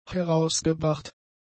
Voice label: "herausgebracht", German; adjectives